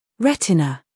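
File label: "scandal", American; retina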